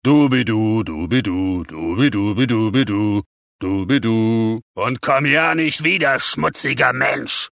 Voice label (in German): Ork im Tunnel; Höhle; Simon the Sorcerer 3D; Adventure Soft